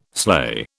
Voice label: "sleigh", British